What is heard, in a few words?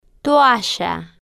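"toalla", Spanish, female, slow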